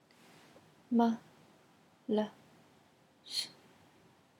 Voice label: chinese neutral tone